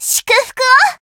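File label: heal, Japanese; suzette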